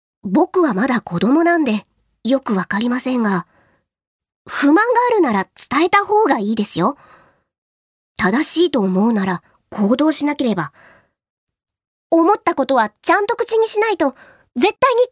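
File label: seikaku female fushigi chan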